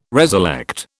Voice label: "reselect", British

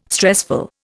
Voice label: "stressful", Indian

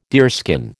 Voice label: "deerskin", American

